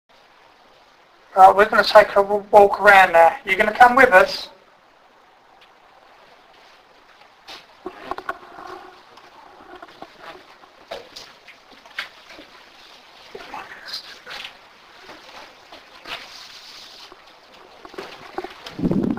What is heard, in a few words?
Hailes Castle Voice, paranormal